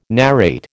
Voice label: "narrate", American